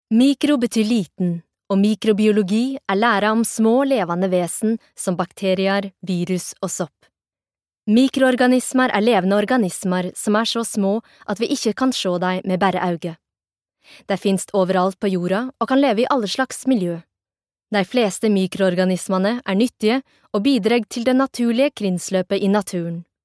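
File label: Norwegian nynorsk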